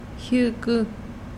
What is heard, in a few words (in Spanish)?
"cuello", mazahua